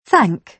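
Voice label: "thank", British English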